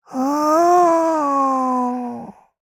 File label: Vox Think, jp; Taily; kings raid